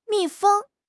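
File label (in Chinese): "密封", Chinese; HSK6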